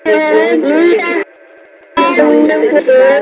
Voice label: grief voice